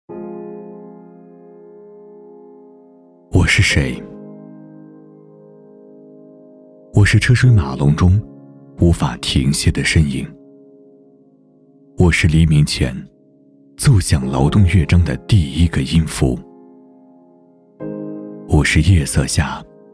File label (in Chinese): B男 公益 广告; 延安新区市政党